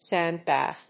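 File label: "sand bath", English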